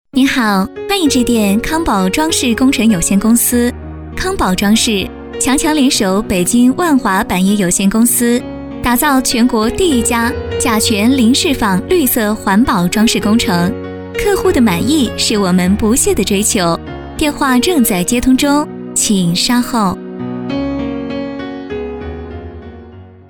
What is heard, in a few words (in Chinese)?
girl